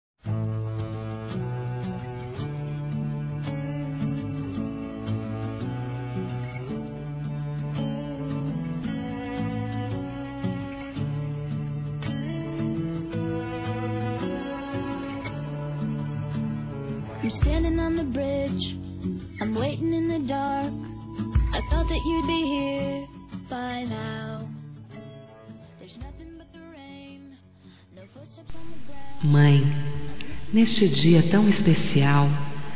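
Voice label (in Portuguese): Aniversário de Mãe, Feminino; Aniversários; Aniv Familiar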